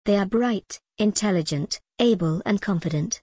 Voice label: en gb they are bright intelligent able and confident